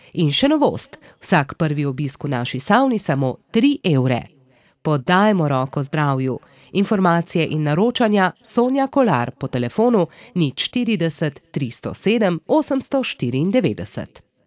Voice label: SLOVENIAN